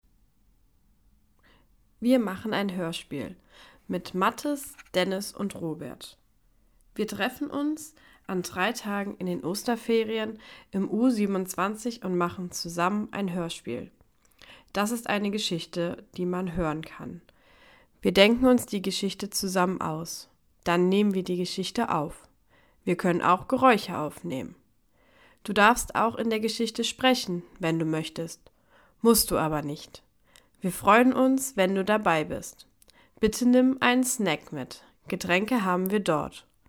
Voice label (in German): Hoerspiel